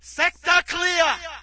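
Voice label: British area clear; player; Source Engine; dod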